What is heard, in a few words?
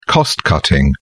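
"cost cutting", British English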